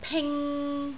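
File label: "ping3", Cantonese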